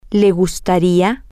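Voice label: female, spanish; she would like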